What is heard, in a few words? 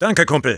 thanks, de; Sniper